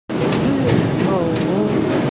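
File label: "pi2ao3", Mandarin Chinese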